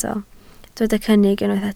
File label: "cynnig", Welsh